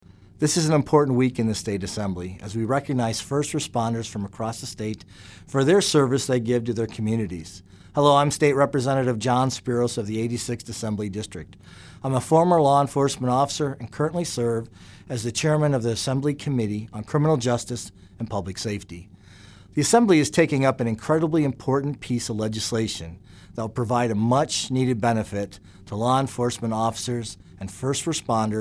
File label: Radio Address